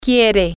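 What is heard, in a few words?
he wants, spanish, female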